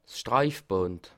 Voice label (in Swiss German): "streifband", alsace